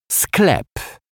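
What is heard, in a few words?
"sklep", Polish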